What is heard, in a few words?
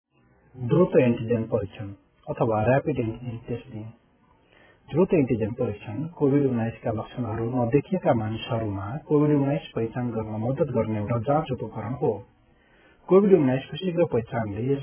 rapid antigen test nepali; covid-19; Infectious